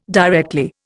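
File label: "directly", Indian English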